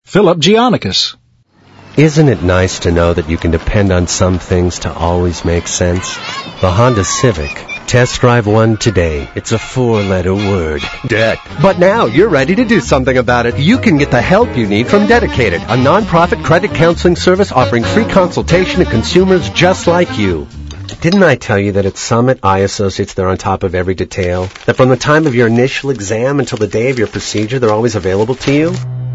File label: male